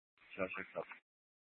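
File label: Mesa Police Department Superstition Dispatch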